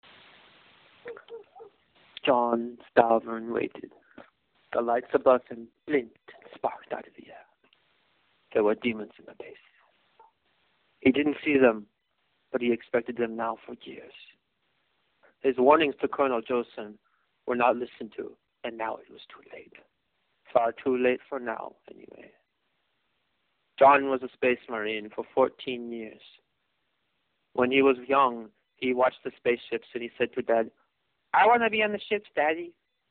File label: voicemail